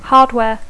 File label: "hardware", English; Dacco